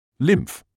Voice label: "lymph", English